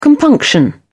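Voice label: "compunction", English, British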